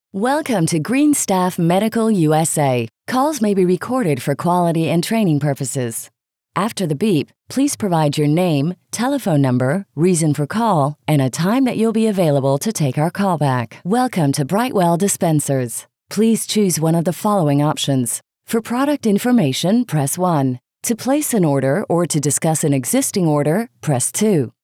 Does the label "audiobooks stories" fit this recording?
No